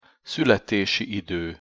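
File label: "szuletesi ido", Hungarian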